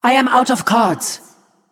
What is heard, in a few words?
Female Naga, NO CARDS